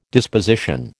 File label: "disposition", American